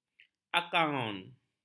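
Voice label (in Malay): "akaun", Malay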